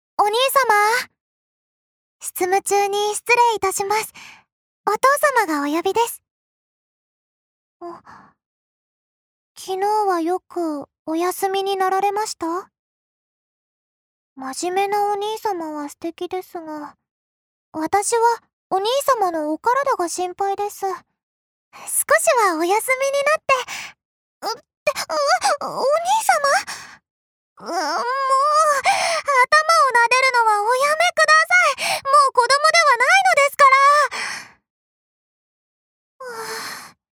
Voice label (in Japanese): アニメ